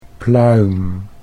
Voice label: "pluim", Dutch

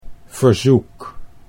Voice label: "verzoek", Dutch

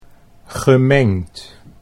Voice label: "gemengd", Dutch

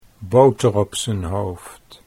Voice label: boter op z'n hoofd, Dutch

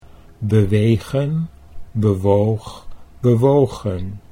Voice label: bewegen bewoog bewogen, Dutch

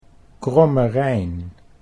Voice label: "Kromme Rijn", Dutch

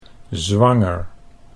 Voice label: "zwanger", Dutch

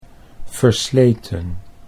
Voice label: "versleten", Dutch

